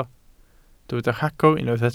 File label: "rhagor", Welsh